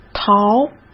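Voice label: "逃", Mandarin Chinese; hsk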